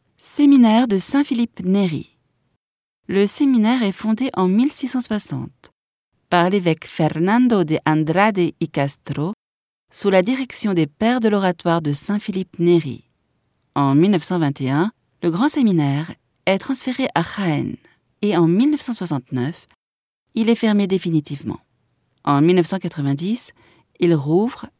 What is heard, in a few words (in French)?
audioguia, frances; audioguia baeza